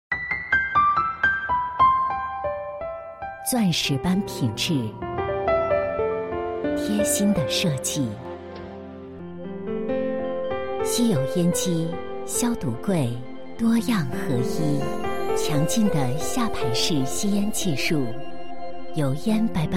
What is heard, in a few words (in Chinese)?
女 广告, 国语女声配音 大气; 星龙集成灶TVC美丽篇